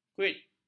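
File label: "kuit", Malay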